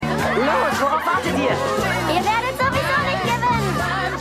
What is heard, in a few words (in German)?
Junge Spielen, Maedchen Spielen